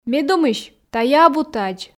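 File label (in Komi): ме думысь тайӧ абу тадз, Komi, female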